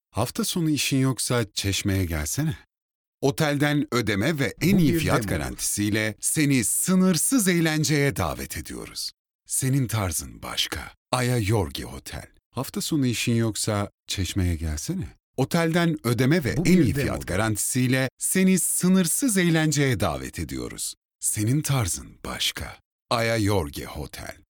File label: Male, Turkish; TR